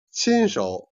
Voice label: "jian", Chinese

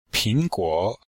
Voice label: "ping2 guo3", Chinese

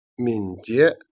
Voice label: "jian", Chinese

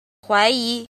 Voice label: "jin", Chinese

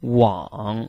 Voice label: "wang3", Chinese

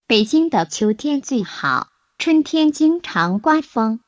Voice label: Mandarin Conversation

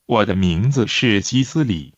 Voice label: Mandarin Conversation

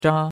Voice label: "zha1", chinois; Cours-de-Chinois

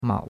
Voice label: "mao3", chinois; Cours de Chinois

